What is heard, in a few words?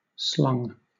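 "slung", British English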